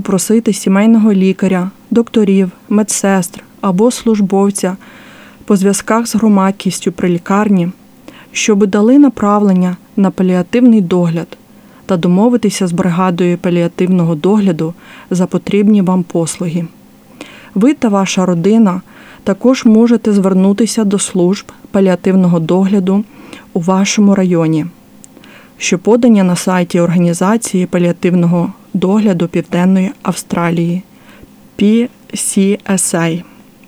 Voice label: Palliative Care Ukrainian Which kind of services are available